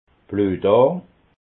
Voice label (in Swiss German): "bluetarm", alsace